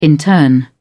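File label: "intern", British English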